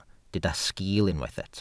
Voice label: "sgiil", Welsh